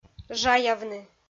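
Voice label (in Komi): "жаявны", Komi, female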